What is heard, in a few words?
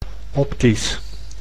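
"optisch", Dutch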